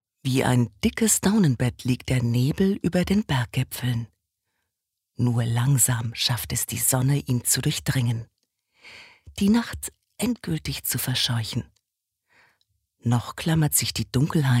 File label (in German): Schauspiel Variabel